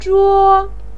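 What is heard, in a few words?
"zhuo1", Mandarin pinyin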